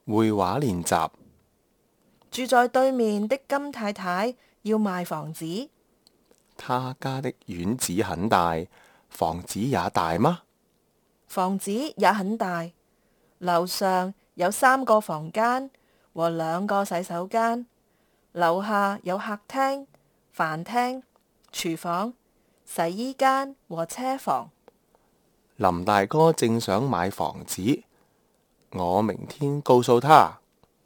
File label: conversation, Kantonese